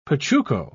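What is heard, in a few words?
"pachuco", British English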